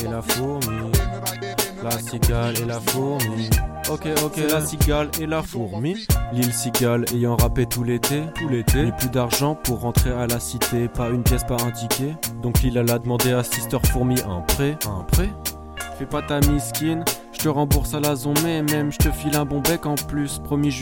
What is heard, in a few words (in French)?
version rap; La Cigale et la fourmi